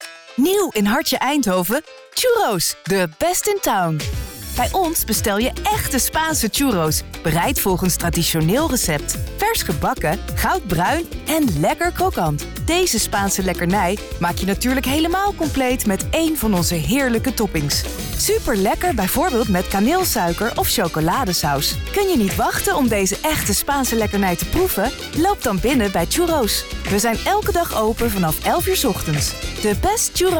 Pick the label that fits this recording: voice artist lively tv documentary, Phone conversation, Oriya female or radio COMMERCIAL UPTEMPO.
radio COMMERCIAL UPTEMPO